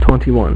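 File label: "twenty-one", male; MacCribbage; InterStellar MacCribbage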